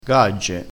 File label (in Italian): "gagge", dialettale crespinese